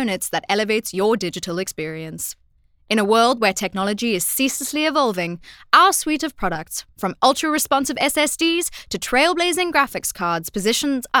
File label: Hard Sell